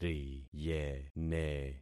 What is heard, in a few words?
"riyene", concat syllables; Affixing experiment